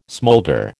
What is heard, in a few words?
"smolder", American